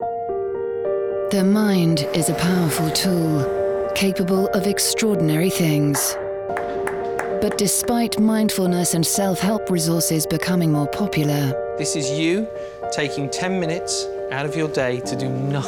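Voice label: Documentary Reel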